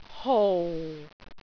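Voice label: "hou4", Cantonese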